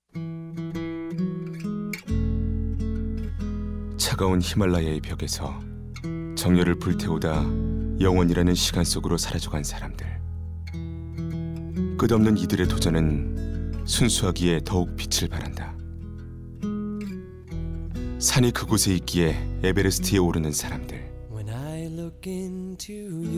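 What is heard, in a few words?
Korean male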